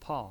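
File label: "pao" fourth tone, pinyin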